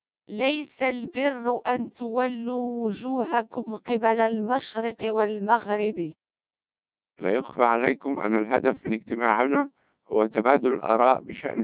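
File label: CODEC2 1200 bps, speech, Arabic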